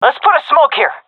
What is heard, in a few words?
request smoke, seal fem; vo; Counter Strike Model Pack; CS:GO